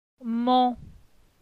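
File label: "Mon", French; phonetique; la syllabe on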